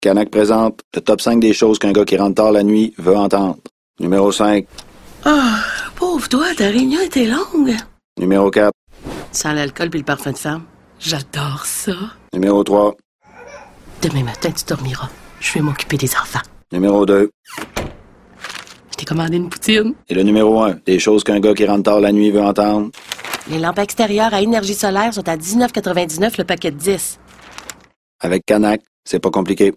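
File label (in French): spot, spots radio, canada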